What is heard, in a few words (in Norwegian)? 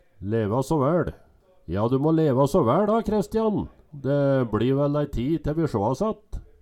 "leva så væL", Numedalsmål